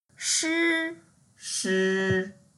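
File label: "shi1", Mandarin Chinese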